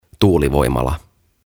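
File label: "Tuulivoimala", "Windmill", Finnish, English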